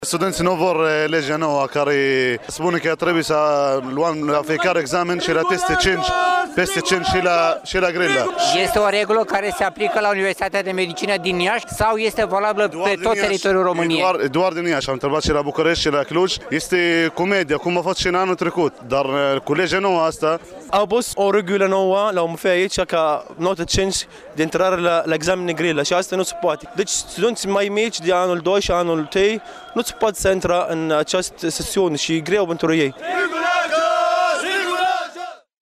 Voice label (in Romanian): studenti protest vox pop, audio protest al studentilor straini; studentilor straini la universitatea de medicina si farmacie din iasi